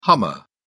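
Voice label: "hummer", English, British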